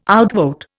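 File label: "outvote", Indian English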